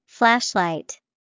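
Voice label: flashlight, English vocabulary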